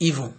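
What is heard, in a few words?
"even", Nigerian Pidgin, male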